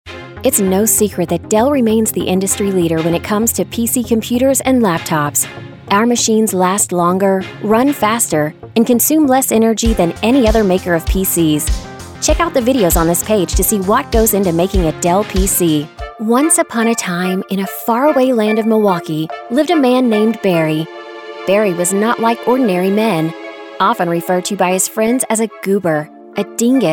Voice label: Female Voiceover, explainer